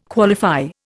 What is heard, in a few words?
"qualify", Indian